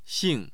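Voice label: "xing4", Mandarin Chinese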